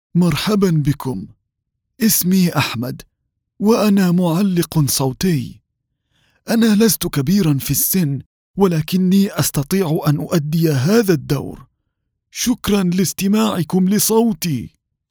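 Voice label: Arabic Characters; GoLocalise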